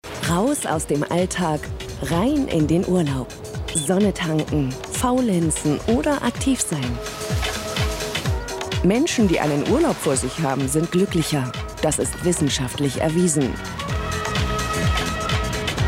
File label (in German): Doku; NDR; Plietsch; Urlaub